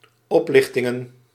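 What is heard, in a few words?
"oplichtingen", Dutch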